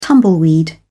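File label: "tumbleweed", British English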